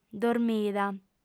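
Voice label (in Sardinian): "dormida", female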